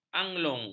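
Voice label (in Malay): "anglung", Malay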